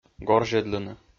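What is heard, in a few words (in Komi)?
"горзьӧдлыны", Komi-Zyrian, male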